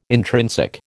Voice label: "intrinsic", American